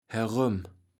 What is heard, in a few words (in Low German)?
"heröm", kaevels platt